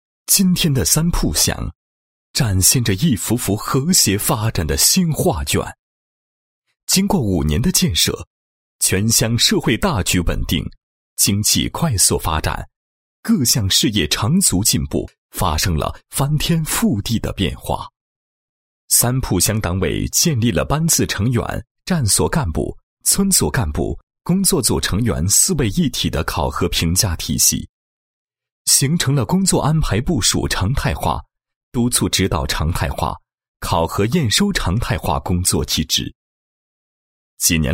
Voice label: 男 纪实, 经济社会发展专题片解说词; 三堡乡

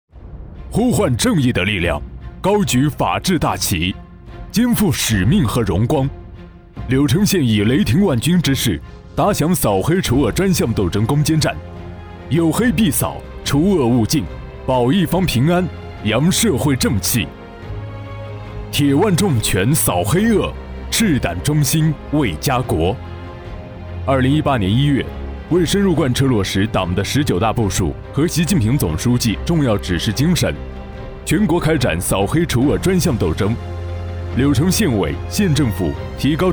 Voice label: 专题 大气刚正; 扫黑除恶

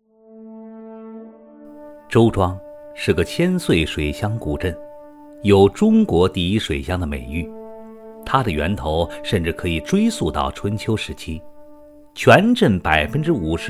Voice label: 男 专题 旅游风光片解说, 国语男声配音; 周庄

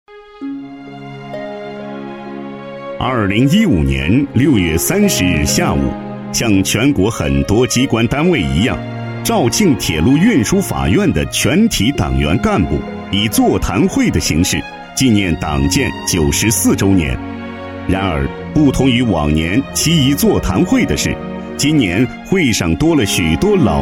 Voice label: 男 纪实类; 铁路运输法院二十周年发展纪实

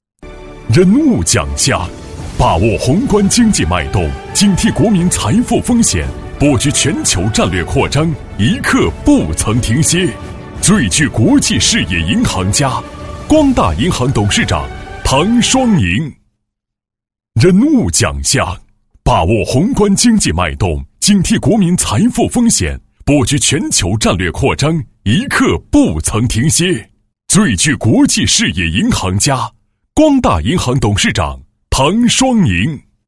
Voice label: 男-颁奖; 银行颁奖脚本

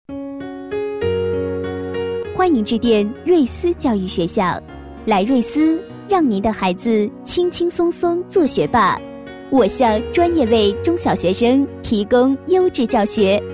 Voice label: 女 彩铃, 国语女声配音, 年轻广告; 教育培训

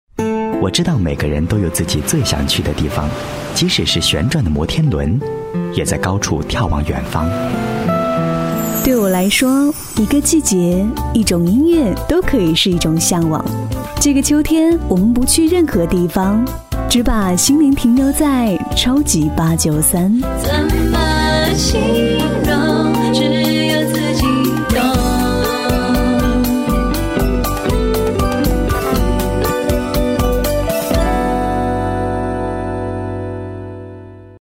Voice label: 男 年轻时尚 时尚版; 湖南台秋天总宣传

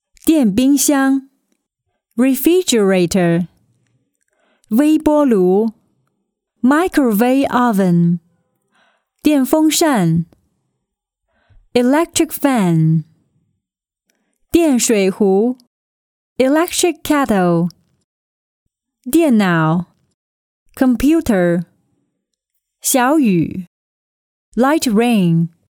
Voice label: 英女 中英单词

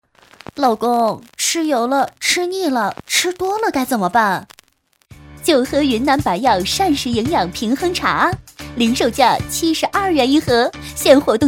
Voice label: 女 广告 时尚甜美; 云南白药一罐清

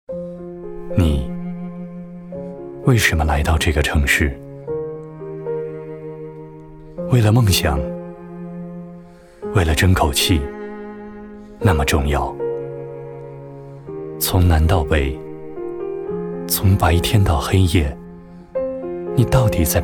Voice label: 男 微电影讲述 沉稳磁性深邃抒情

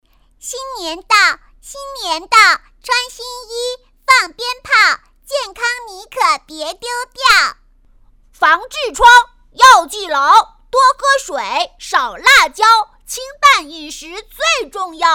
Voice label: 女, 童声, 男女孩

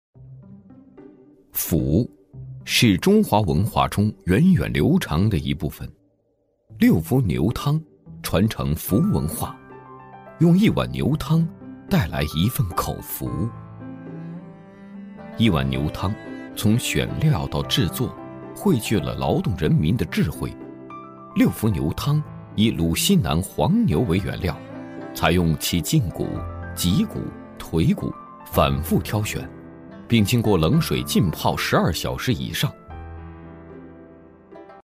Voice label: 男 舌尖, 国语男声配音; 牛汤

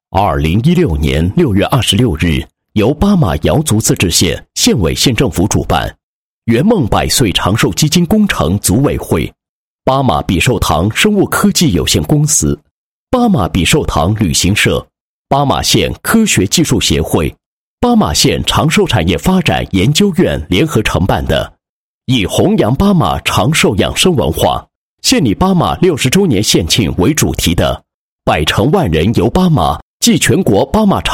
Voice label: 男 旅游宣传; 巴马比寿堂 巴马长寿旅游活动宣传

